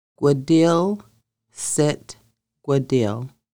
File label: sit "gʷədil", Lushootseed; Conversational Lushootseed: Lessons 1-5